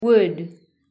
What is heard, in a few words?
"wood", en; grade1; properties of things